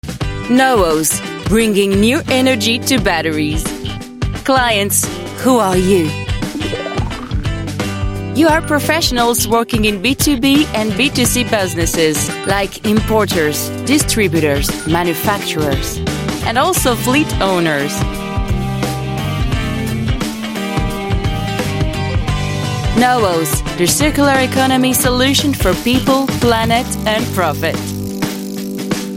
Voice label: French English Corporate

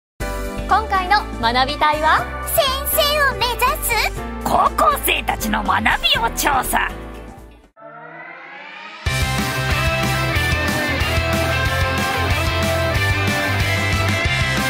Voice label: Japanese

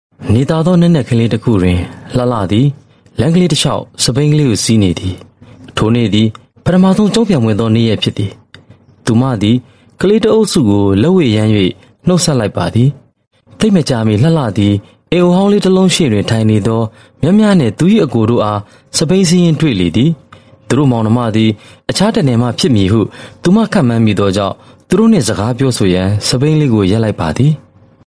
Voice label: Burmese Male Narration

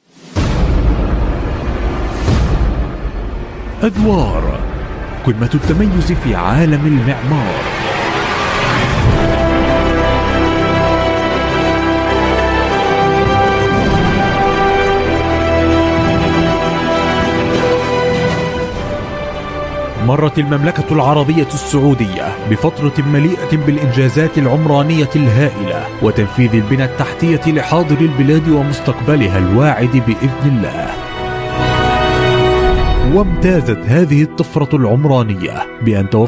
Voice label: Arabic Male Voice

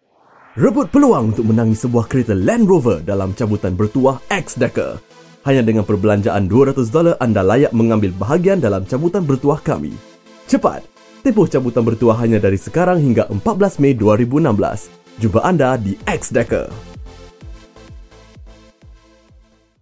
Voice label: Malay; X-Decker

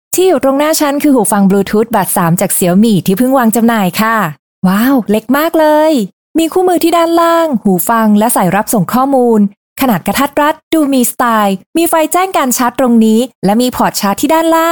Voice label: Thai Female Commercials Lively

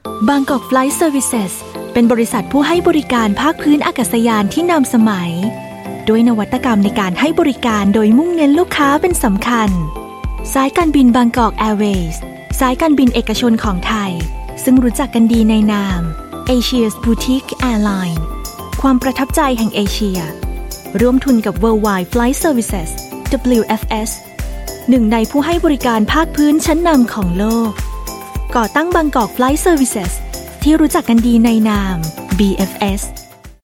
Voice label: Thai Female Narration General; TTS

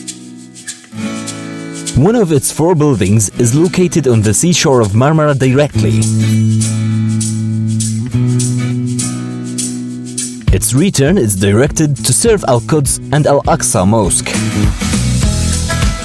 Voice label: Arabic English Resort; Pelaj

